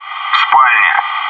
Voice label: "bedroom", bot